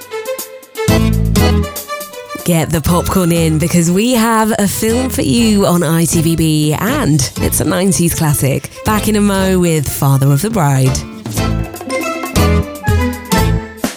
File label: Continuity